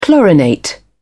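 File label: "chlorinate", English, British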